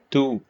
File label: short voyels arabic alphabet